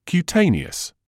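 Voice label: "cutaneous", British English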